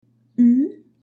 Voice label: u trema, accents, French